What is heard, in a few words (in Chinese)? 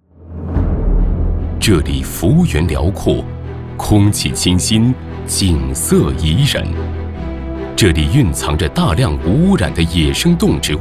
男 专题; 大兴安岭